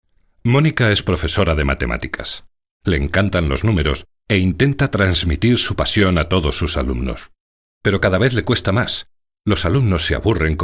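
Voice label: Spanish, Spain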